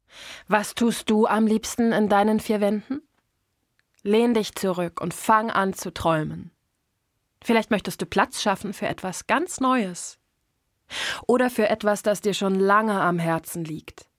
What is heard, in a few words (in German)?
Werbung; IKEA